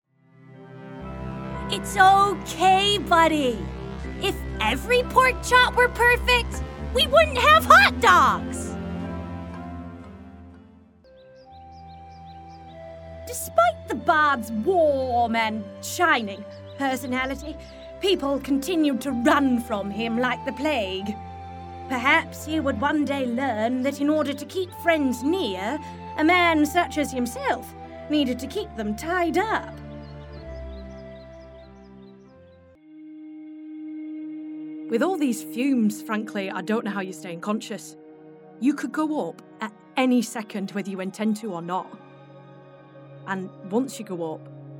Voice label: Character